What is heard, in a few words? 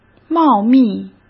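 "茂密", Mandarin Chinese; hsk; chinese-flashcards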